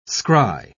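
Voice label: "scry", English, British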